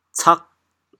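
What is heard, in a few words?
"cag8", Teochew, chaoyang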